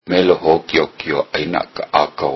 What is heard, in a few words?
Hawaiian